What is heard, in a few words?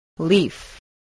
"leaf", English